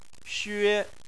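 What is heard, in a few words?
"xue", Chinese, first tone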